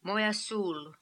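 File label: "Moyasul", pronunciations; Fourth Way; Beelzebub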